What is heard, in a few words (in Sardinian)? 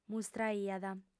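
"mustraiat", female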